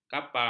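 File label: "kapang", Malay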